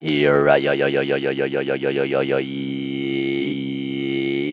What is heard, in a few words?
"hereyeyoyoyoyoyohereeeee", voice